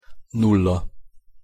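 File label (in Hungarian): "nulla", Hungarian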